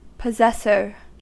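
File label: "possessor", US English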